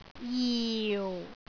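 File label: "jiu4", Cantonese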